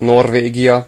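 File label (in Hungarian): "norway", Hungarian; gcompris; geography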